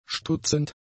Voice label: "stutzend", German; adjectives